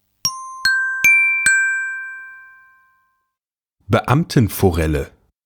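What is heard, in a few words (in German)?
"Beamtenforelle", German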